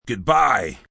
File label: "Goodbye" Gruff, Goodbyes, male; VoiceBox